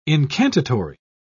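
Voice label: "incantatory", British English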